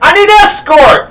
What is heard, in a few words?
male escort; lmctf